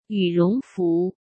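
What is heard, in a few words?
"羽绒服", Mandarin Chinese; hsk; chinese-flashcards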